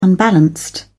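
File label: "unbalanced", British English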